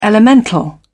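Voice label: "elemental", British English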